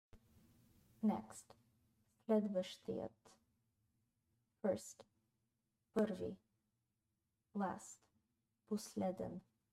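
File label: Next first last, Bulgarian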